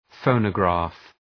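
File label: "phonograph", English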